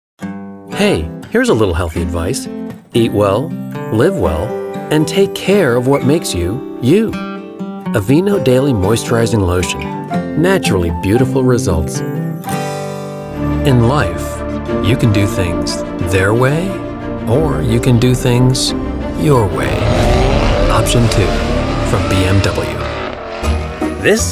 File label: Commercial